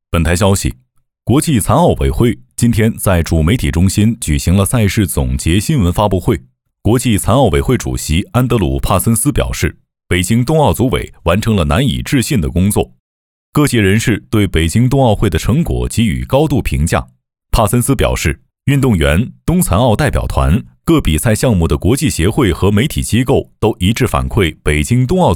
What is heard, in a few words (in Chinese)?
新闻新闻联播风格